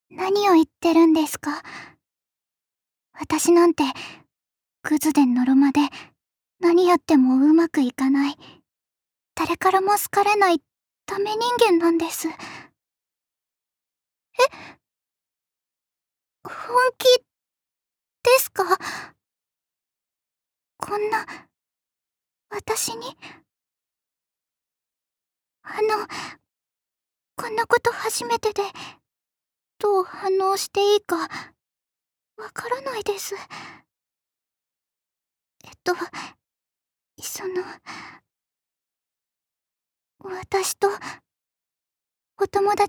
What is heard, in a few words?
girl; 女の子2